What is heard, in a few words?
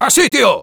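Sniper good job, Spanish; ¡Haz sitio!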